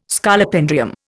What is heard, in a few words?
"Scolopendrium", Indian